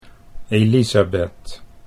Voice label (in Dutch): "Elizabeth", Dutch